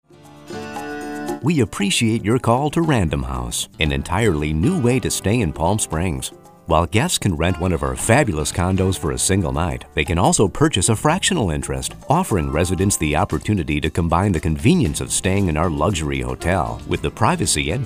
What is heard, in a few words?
voice demo